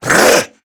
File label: Threatened, Male